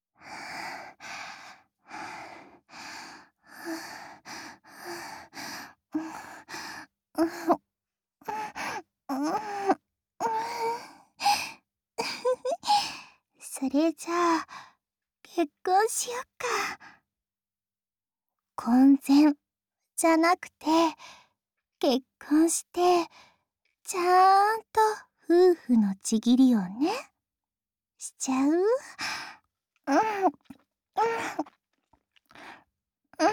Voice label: LOVE Whisper; おしえご

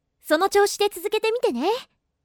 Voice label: cheer 続けてみてね

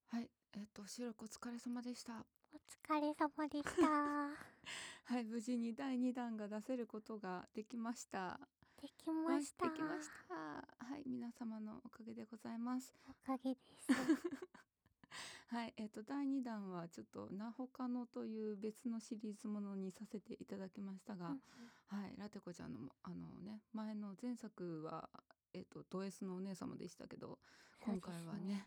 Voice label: Free talk

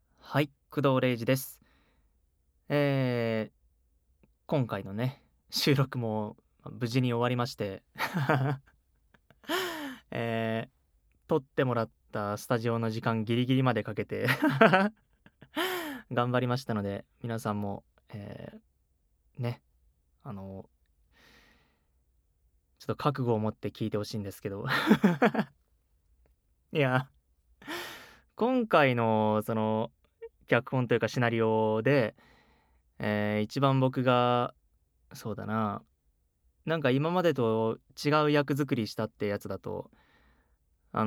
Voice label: free talk, ASMR

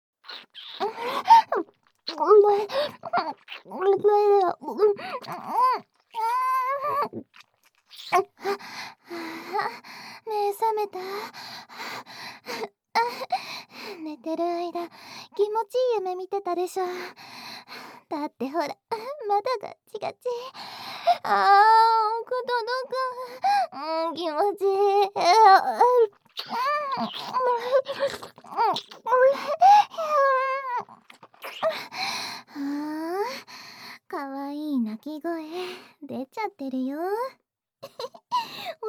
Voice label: voice only, ASMR; もうだめっ; ボイスのみ

